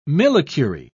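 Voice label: "millicurie", British English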